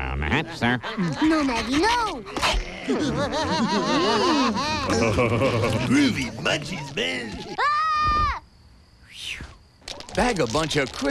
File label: butterfinger commercial; simpsons